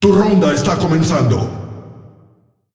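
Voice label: announcer female tournaments round start; es ar; clash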